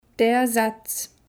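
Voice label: "der Satz", German; Kapitel 2; Alphabet erste Schritte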